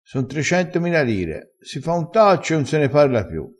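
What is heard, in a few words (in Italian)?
"taccio", vocabolario dialettale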